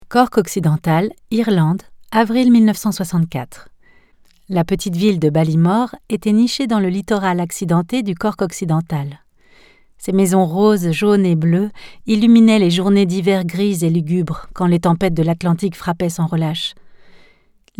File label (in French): extrait livre audio, voix-off; la derniere chanson